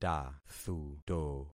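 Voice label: "tathuto", concatenated syllables; Affixing experiment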